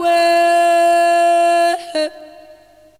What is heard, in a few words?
AFRA GIRL, ETHNIC; AMG; Global Trance Mission VOL-2